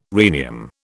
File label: "rhenium", British